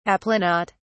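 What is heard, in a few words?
"aplanat", British English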